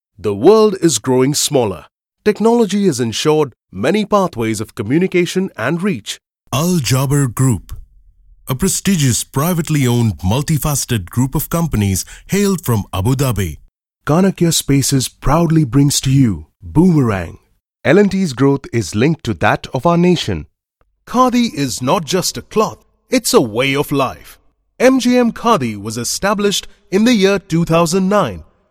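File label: Corporate English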